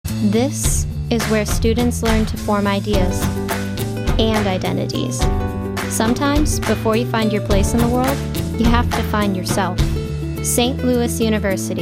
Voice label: Commercial VO